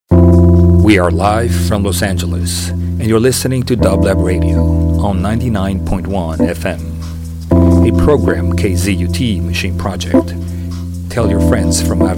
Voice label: FM Radio